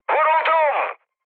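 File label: Turkish aircraft damaged, mood med, speech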